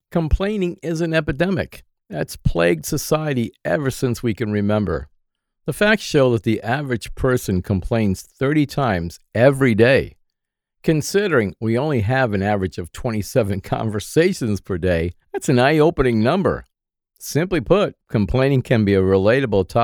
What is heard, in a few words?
NARRATION COMPLAINING